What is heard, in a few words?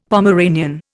"Pomeranian", Indian; Dictionary